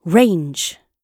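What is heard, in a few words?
"range", British English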